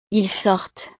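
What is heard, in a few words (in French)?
"ils sortent", French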